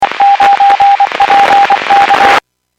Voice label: Skywarn 146.67; Stevens Point